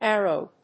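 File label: "aerobe", English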